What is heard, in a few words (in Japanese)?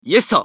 男･選択; マスケッティア